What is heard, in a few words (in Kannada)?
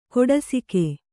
"ಕೊಡಸಿಗೆ", Kannada